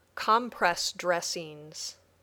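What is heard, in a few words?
"compress dressings", English words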